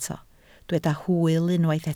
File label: "hwyl", Welsh